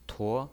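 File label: "tuo", pinyin voice, second tone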